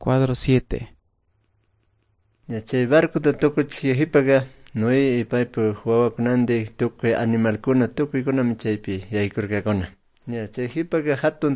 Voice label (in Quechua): good news quichua cayambe